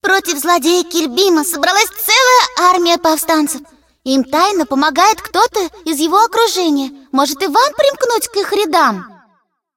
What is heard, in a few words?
cinematic voice, ru; lineage2